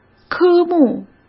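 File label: "科目", Mandarin Chinese; chinese-flashcards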